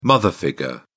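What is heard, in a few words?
"mother figure", British English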